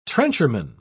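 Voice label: "trencherman", British English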